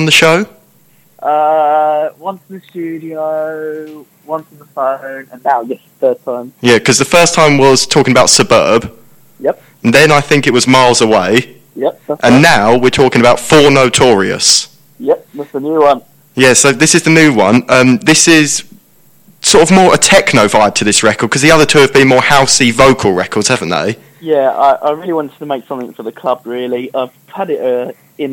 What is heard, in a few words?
Static Noises Interview